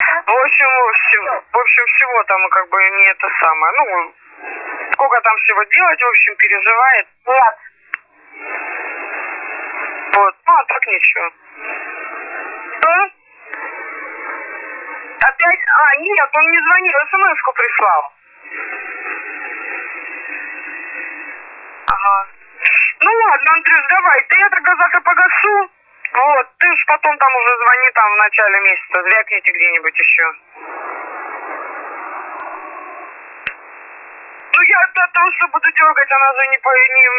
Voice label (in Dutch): onbekend met een telefoon schakeling USB; Murmansk Radio, Rusland